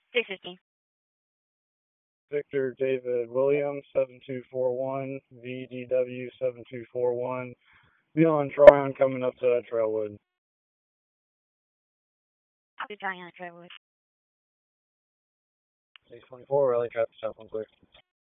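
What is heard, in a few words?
Raleigh Police Southwest District Dispatch; wake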